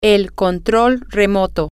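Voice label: the remote control, spanish, female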